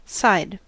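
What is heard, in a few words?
"side", American English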